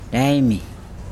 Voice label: "lime", Nukuoro; lehu